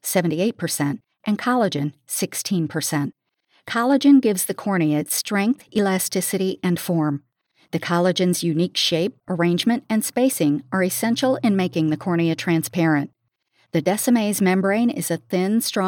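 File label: Corneal Epithelium, voice over demos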